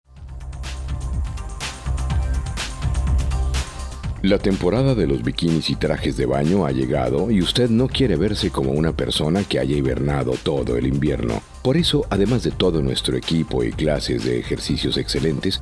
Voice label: Gym Sample Spanish, on hold message